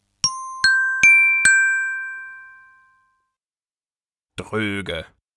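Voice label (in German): "dröge", German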